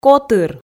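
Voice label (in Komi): "котыр", Komi, female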